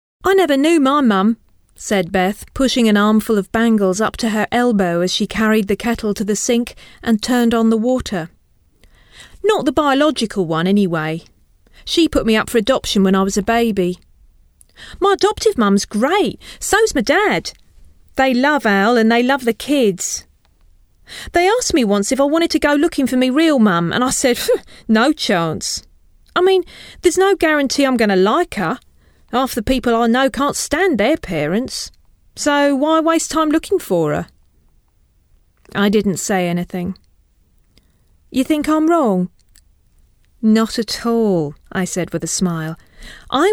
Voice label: Talking Book